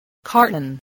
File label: "carton", spelling